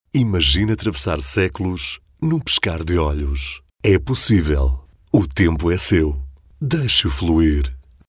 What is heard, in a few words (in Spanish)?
Masculino